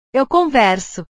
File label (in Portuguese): "eu converso", portugues; conversar; portugues/verbos